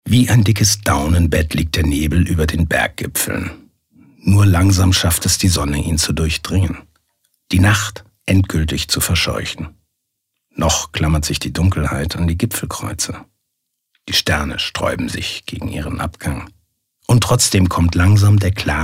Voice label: Schauspiel Sanft

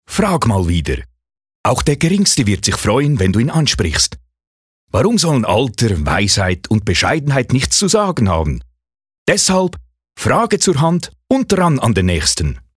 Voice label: Schweizerisch Stark

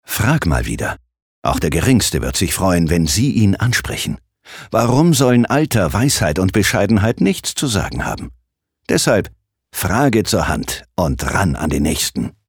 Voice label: Werbung Freundlich

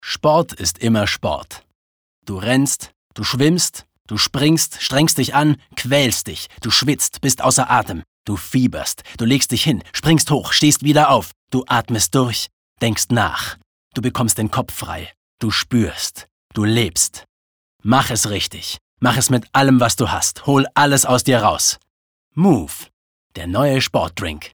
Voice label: Dynamisch; Move

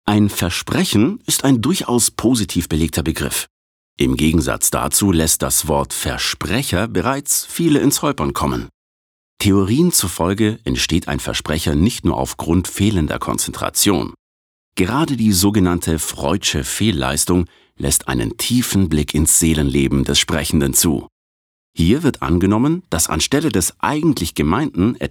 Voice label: Versprecher Freundlich

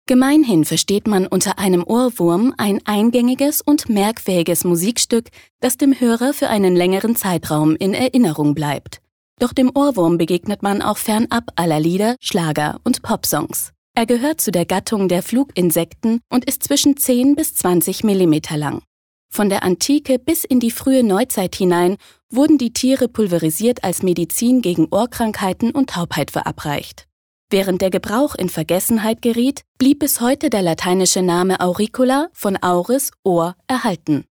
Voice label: Witzig; Ohrwurm

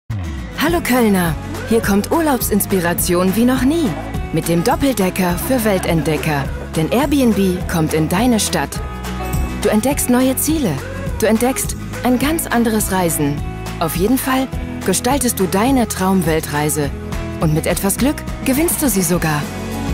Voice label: Fremdtake Freundlich

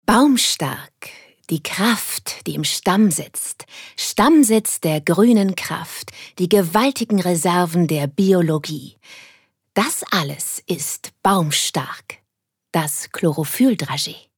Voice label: Werbung Kraftvoll

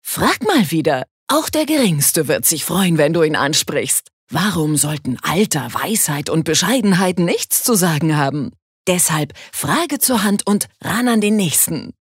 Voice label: Werbung Dynamisch

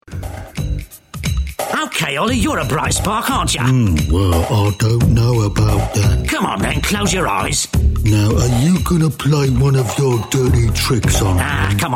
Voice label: Eng UK Werbung Variabel

